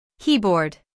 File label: "keyboard", en